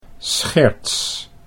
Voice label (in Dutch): "scherts", Dutch